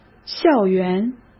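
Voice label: "校园", Mandarin Chinese; hsk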